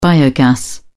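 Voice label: "biogas", English, uk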